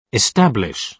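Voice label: "establish", British English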